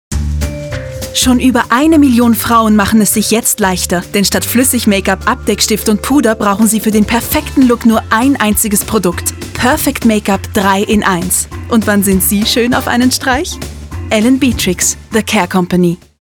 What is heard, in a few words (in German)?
werbung; Demo Werbung (DE)